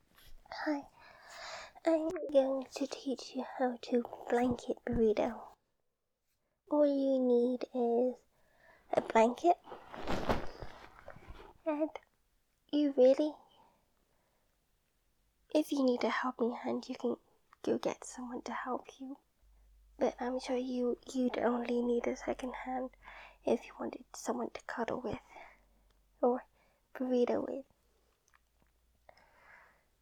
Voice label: cuddles, instructional, silly, crinkly sheet noises; [F4A] Blanket Burrito; [it snowed!]